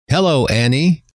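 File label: "hello", male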